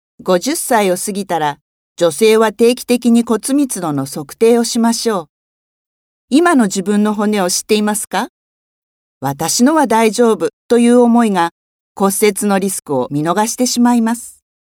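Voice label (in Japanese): 広報ナレーション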